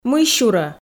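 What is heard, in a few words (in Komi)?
"мыйсюрӧ", Komi, female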